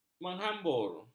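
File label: "menghambur", Malay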